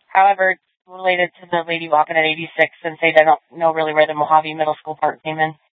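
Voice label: Scottsdale Police Department South Dispatch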